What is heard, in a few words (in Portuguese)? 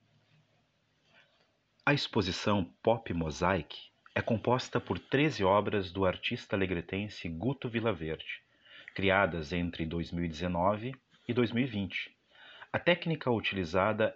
audiodescrição; SINOPSE